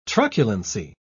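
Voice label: "truculency", British English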